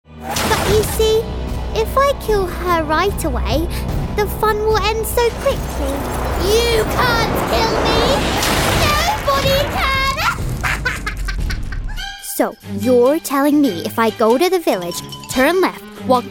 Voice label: Animation

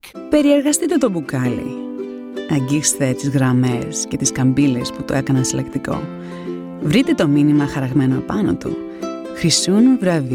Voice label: Greek

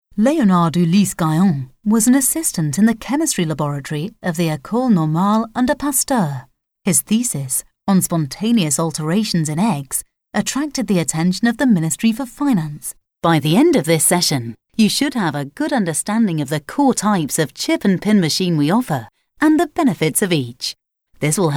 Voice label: Narration

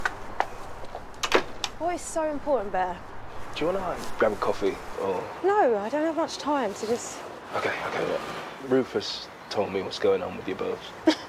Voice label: radio drama

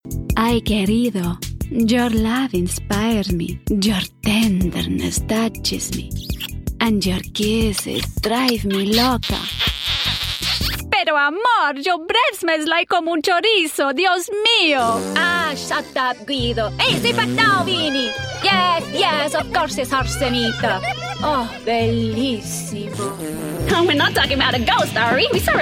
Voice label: character

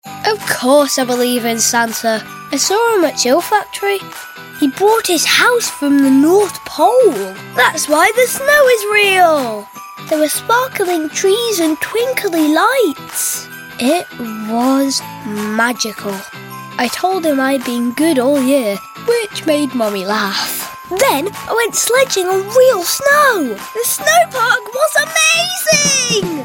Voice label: Commercial

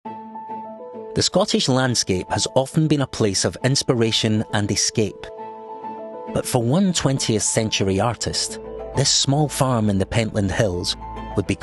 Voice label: Documentary

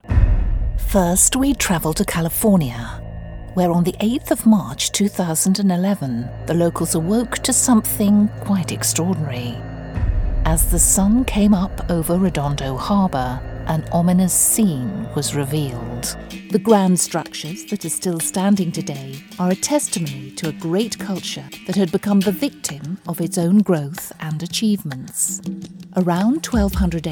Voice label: Narration

